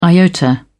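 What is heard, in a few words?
"iota", English, British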